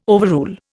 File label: "overrule", Indian